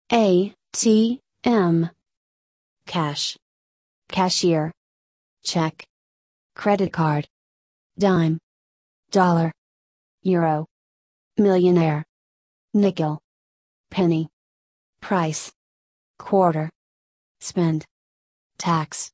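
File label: American; money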